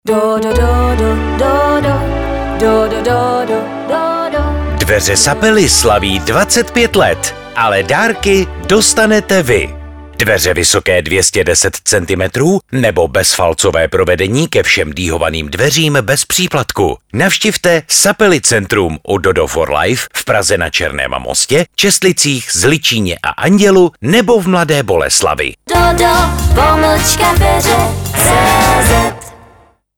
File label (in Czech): Radio reklamni spot; Sapeli slavi 25 let